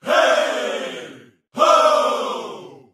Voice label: "hey ho" group of men, voice; ooh ahh